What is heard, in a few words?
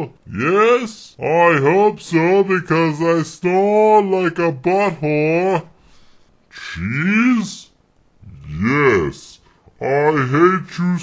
Commercial Greeeeeting cards; fake commercials